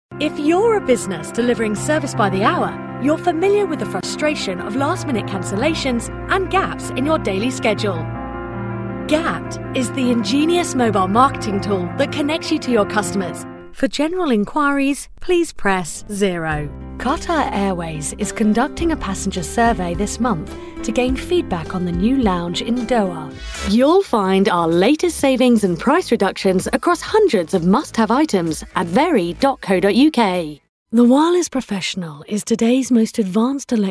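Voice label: UK English, Neutral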